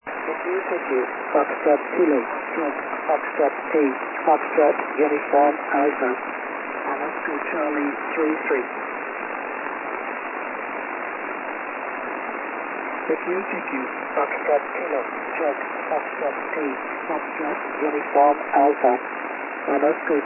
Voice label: 14.265 MHz ssb cqing